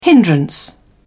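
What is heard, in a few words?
"hindrance", British English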